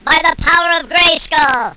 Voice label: by the power of grayskull, squeaky, attack